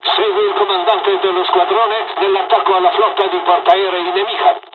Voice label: flight, dialogs italian; War Thunder